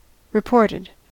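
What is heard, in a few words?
"reported", US English; pronunciations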